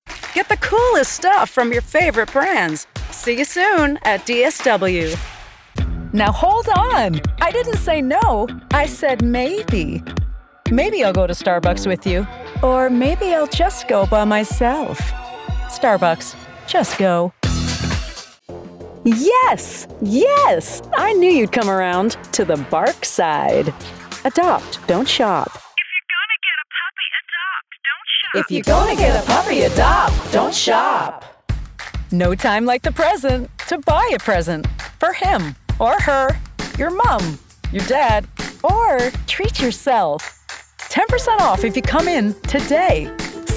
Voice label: HAPPY EXCITED UPBEAT

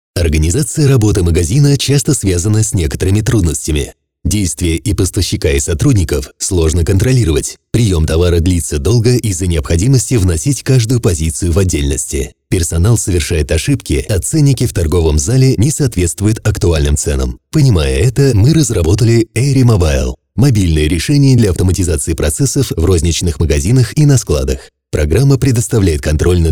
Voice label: EXPLAINER VIDEOS

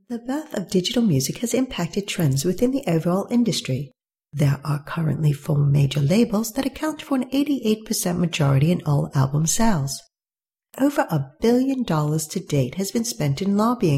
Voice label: brit corporate

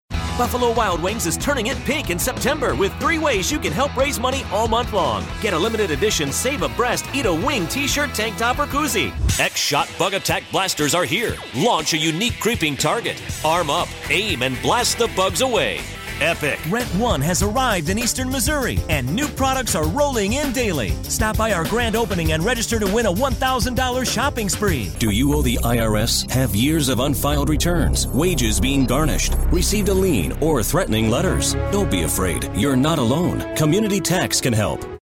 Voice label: Hard Sell

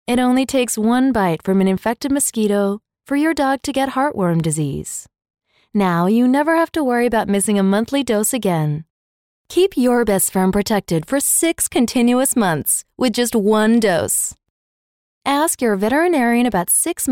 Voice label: Educational American

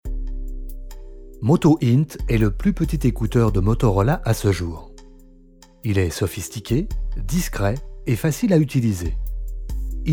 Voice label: voiceover elearning; motorola

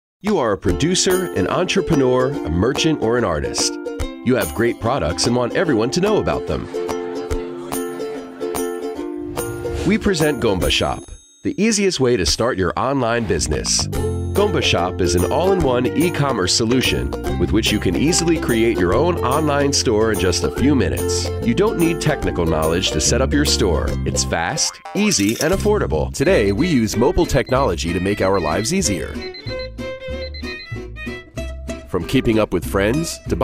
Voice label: Explainer Video; Internet Explanation Video Voice Overs

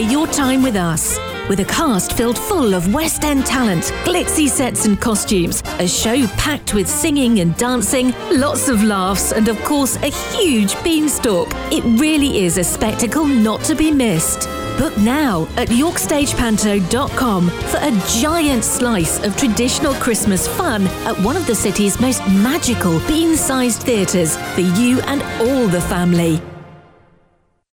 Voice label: Panto commercial

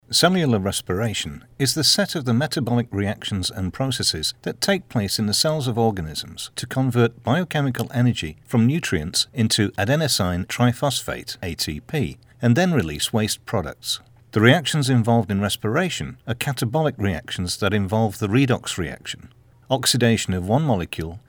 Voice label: Medical audiobook

